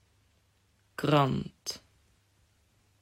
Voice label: "Krant", Dutch